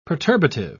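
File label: "perturbative", British English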